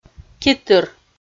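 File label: "ки тыр", Komi, female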